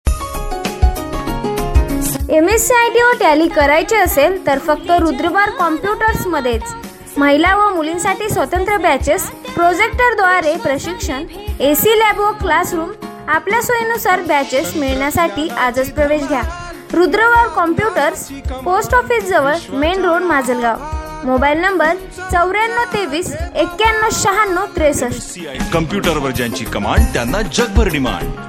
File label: voice ad